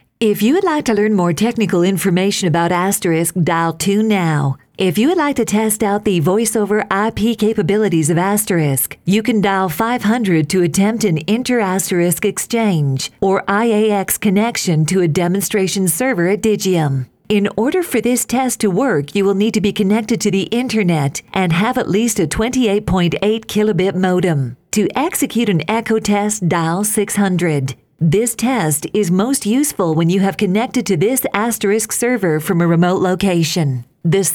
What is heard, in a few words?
southern, ivr